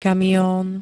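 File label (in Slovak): "kamion", Slovak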